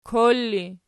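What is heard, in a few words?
"kor" ergative, Archi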